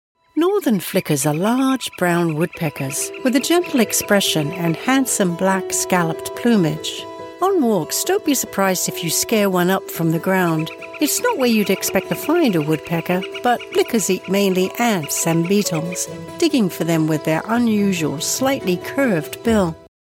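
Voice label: documentary, Mid Atlantic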